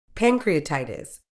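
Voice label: "pancreatitis", medical English, British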